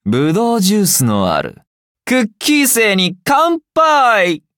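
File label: levelup, Japanese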